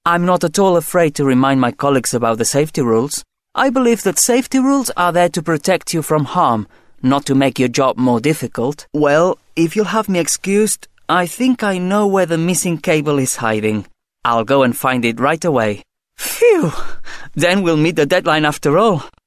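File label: Catalan female English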